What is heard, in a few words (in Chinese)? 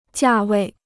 "价位", Chinese